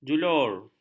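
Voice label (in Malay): "julur", Malay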